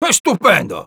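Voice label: Sniper jeers, Spanish; ¡Estupendo!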